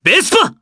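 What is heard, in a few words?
voice, Japanese; Clause; Vespa; kingsraid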